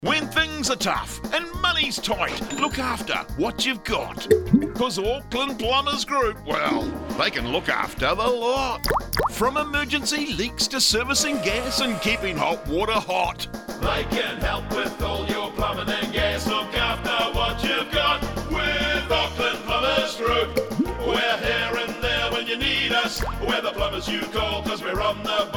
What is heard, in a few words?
Radio advert; When things are tough